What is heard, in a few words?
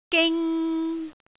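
"ging3", Cantonese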